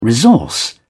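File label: "resource", British English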